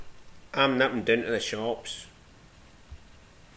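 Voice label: "doon", Scottish slang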